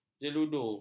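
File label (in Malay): "jeludu", Malay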